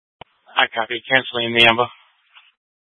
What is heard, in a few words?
Fire Departments Mesa Simulcast; TOPAZ